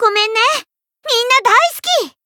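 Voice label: healed, Japanese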